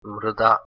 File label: "mrda", Sanskrit